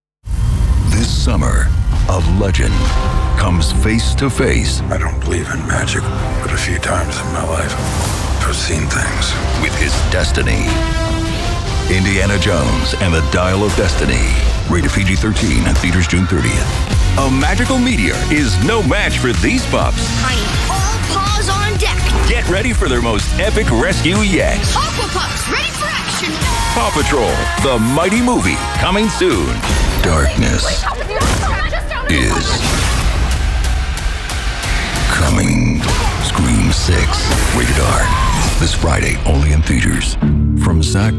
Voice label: Trailers